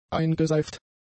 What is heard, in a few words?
"eingeseift", German; adjectives